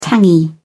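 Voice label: "tangy", British English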